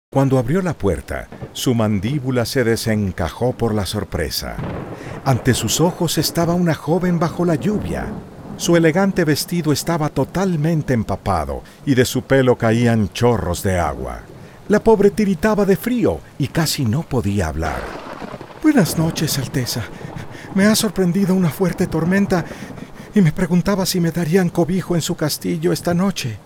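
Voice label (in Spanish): narración infantil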